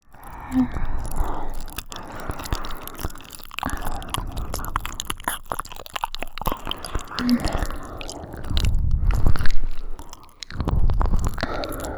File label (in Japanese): まったりMIX両耳, ASMR